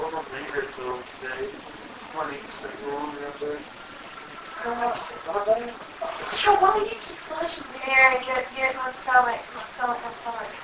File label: female voice, why do you keep pushing; Case 007 Webb Home Girls Room